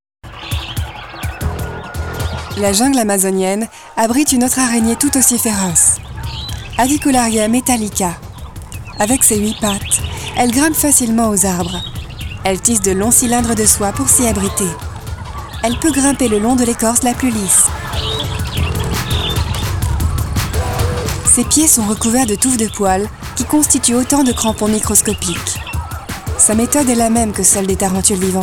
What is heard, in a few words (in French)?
documentaire; Tarentule